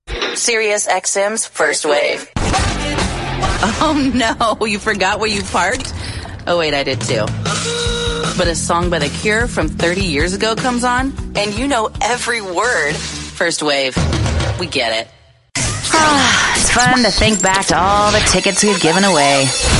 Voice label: radio